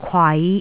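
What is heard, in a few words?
"kwai5", Cantonese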